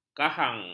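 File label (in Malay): "kahang", Malay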